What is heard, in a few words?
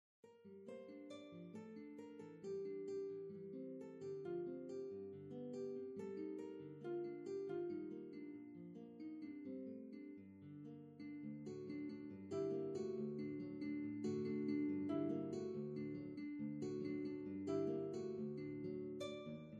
Clair de lune de Paul Verlaine Poetry reading; lecture de po mes